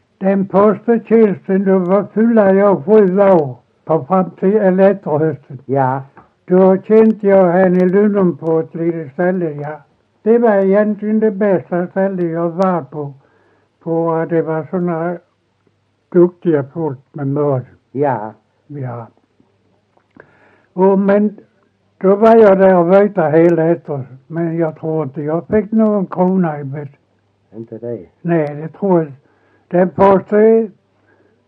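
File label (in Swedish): Ekeby Skåne, dialekter, svenska sagor; Folkminnen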